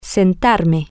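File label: Spanish, to seat myself, female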